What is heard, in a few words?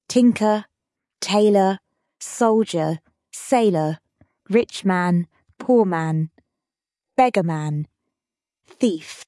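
Tinker Tailor female british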